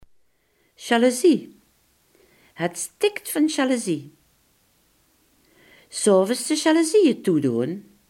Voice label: "jaloezie", Limburgs

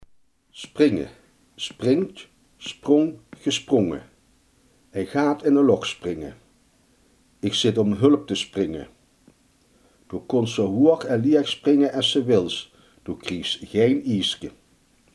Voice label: "springen", Limburgs

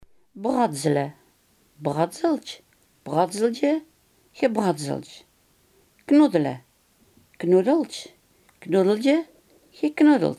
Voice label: "knoeien", Limburgs

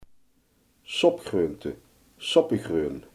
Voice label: "soepgroenten", Limburgs

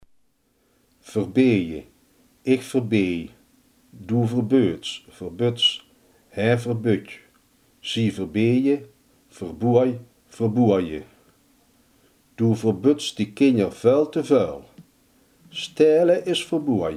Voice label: "verbieden", limburgse

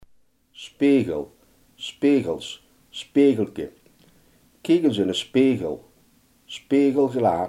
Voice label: "spiegel", Limburgs

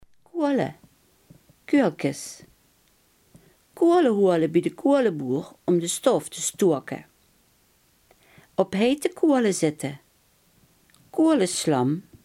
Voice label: "kolen", Limburgs

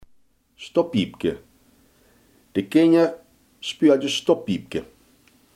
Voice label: "verstoppertje", limburgse

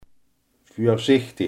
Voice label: "voorzichtig", limburgse

